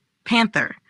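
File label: "Panther", pronunciation; animals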